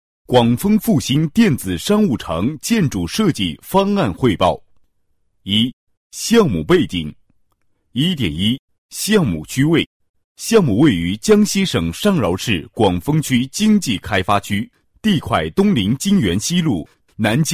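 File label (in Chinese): 男厚重大气-工程简介, 国语男声配音; 广丰复兴电子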